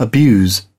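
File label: "Abuse", British English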